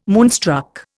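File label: "moonstruck", Indian English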